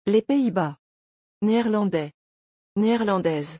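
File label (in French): "les pays bas", French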